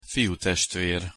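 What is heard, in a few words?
"fiutestver", Hungarian pronunciation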